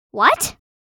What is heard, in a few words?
Teenage girl saying what; misc human